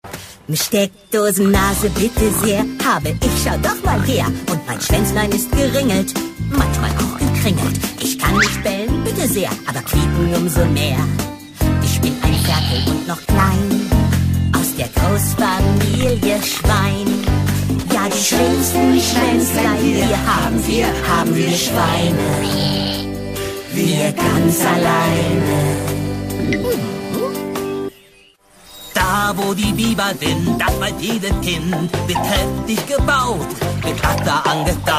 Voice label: Charge Comic

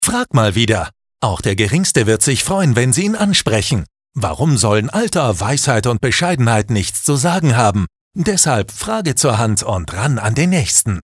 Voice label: Werbung, Dynamisch

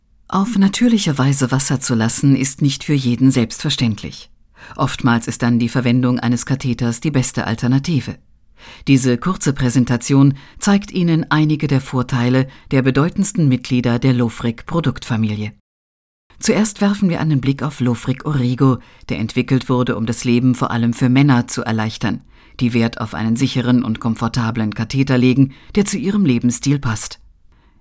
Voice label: Kommentar Neutral

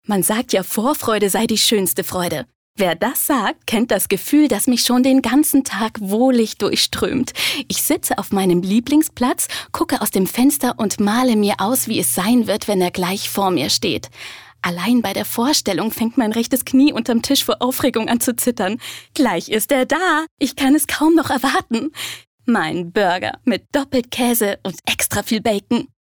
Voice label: Frech; KleineFreuden

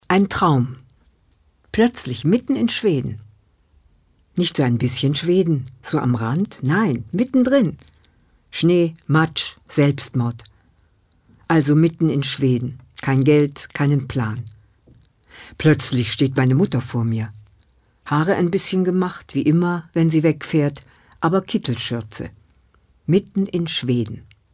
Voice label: Schauspiel Neutral

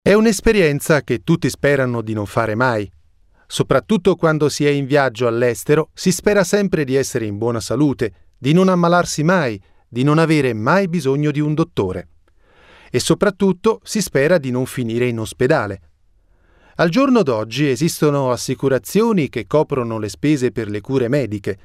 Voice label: Kommentar Dynamisch

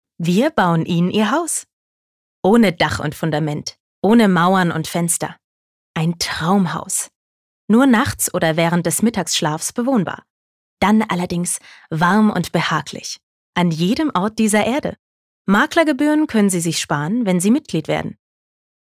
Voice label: Werbung Freundlich

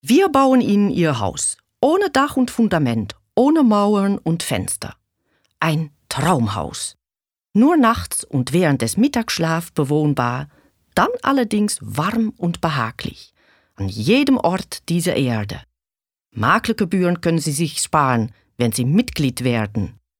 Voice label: Akzent Niederlaendisch

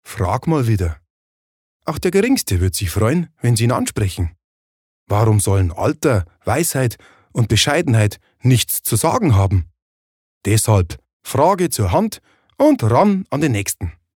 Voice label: Dialekt Bayerisch

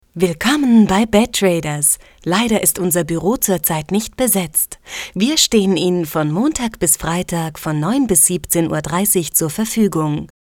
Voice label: Kommentar Neutral, voices

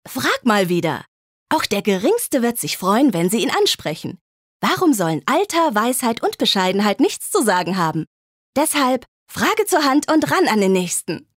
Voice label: Werbung Dynamisch

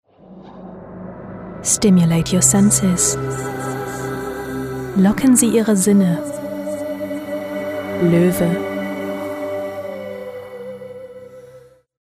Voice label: Werbung Sanft

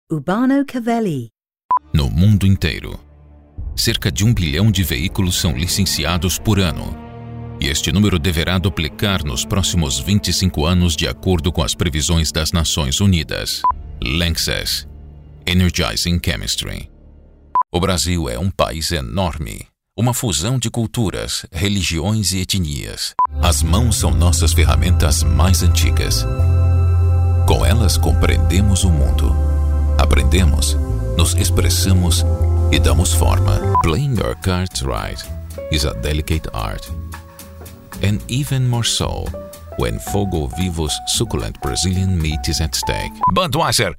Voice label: PT BRA Werbung Variabel

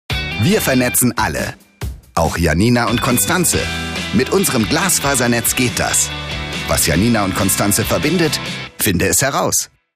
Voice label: Werbung Dynamisch; MNet

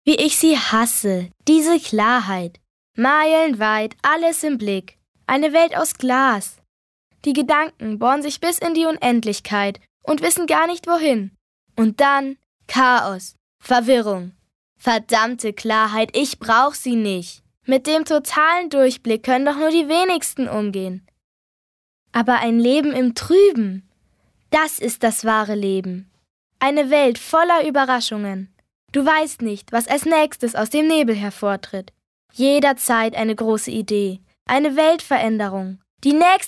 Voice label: Energisch; Klarheit

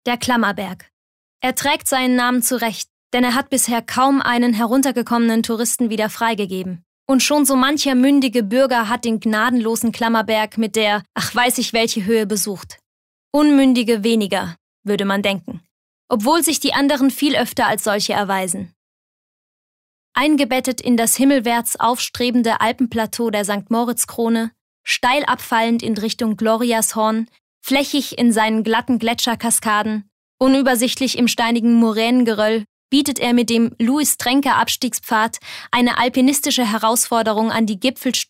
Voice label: Kommentar Neutral

